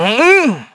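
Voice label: Vox Casting, Korean; heroes; Evan; kingsraid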